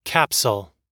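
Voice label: "capsule", American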